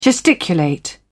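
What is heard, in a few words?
"gesticulate", British English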